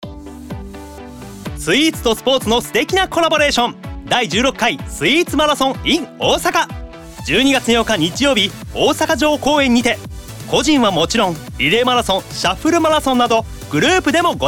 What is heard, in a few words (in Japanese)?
CM Radio